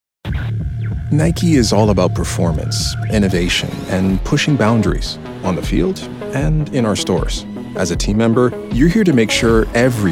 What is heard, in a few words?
Corporate E-Learning; corporate-narration